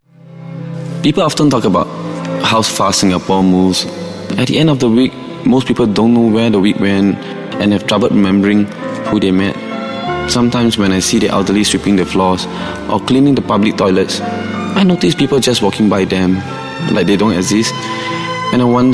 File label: Asian English PODCAST DRAMA NARRATOR